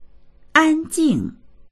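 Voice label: "an jing", Mandarin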